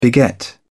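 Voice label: "beget", en, uk British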